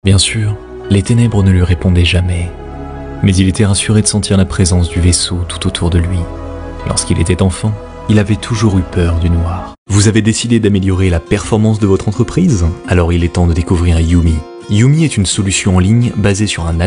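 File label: French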